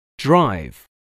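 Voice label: "drive", English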